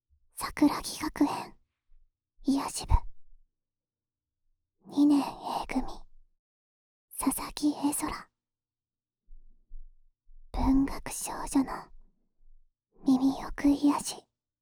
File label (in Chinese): 標題朗讀; 耳かき・マッサージ; 桜木学園癒やし部～2年A組・佐々木絵空。文学少女の耳奥癒やし～